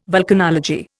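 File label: "vulcanology", Indian English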